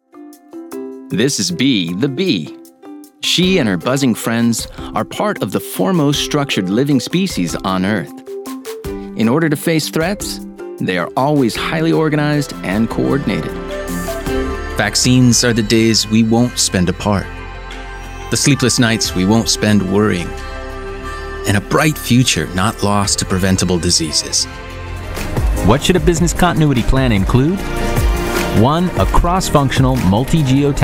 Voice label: CORPORATE VOICE, Mid-tone, cool, with a hint of attitude!